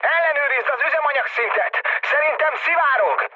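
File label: tank damaged, mood med, Hungarian; speech; War Thunder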